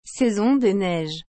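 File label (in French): "saison des neiges", French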